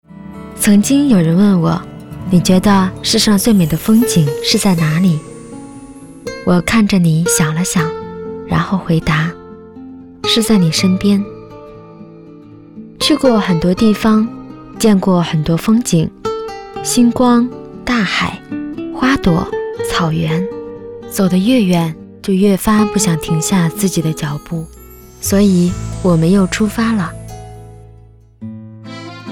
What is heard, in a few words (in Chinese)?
旁白温馨微电影; 婚礼在你身边微电影